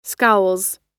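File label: "scowls", American English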